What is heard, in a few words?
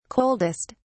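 "coldest", British English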